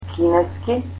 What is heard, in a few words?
Chinese, macedonian